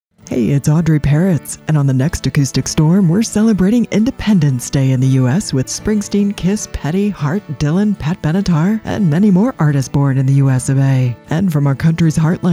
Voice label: PROMO; Acoustic Storm; USRN-FM; kkcl-am